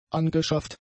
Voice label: "angeschafft", German; adjectives